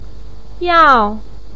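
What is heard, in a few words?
"yao", Mandarin pinyin, fourth tone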